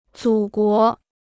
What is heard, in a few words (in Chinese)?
"祖国", Chinese